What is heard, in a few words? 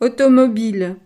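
"automobile", French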